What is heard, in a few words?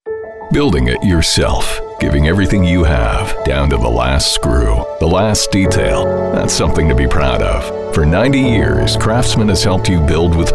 Mid Atlantic English Gritty Blue Collar